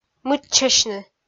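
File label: "мыччасьны", Komi, female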